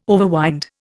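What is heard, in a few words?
"overwind", Indian English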